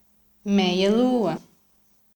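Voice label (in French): "croissant", French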